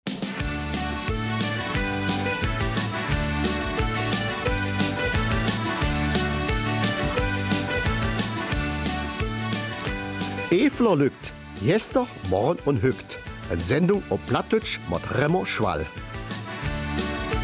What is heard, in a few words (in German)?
Eifeler Mundart; Pilgerfeierlichkeiten in Banneux